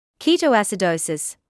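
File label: "ketoacidosis" English, British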